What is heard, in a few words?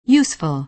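"useful", American English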